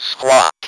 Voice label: "SQUAT", Speak & Spell